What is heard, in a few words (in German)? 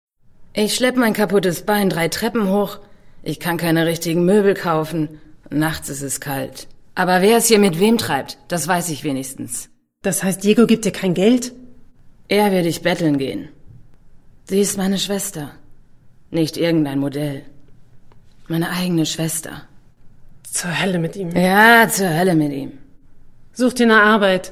Synchron